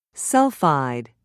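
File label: "sulfide", British English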